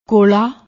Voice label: "kola", Punjabi; ਕੋਲ਼ਾ